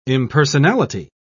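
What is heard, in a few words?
"impersonality", British English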